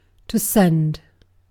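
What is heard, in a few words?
"send", British English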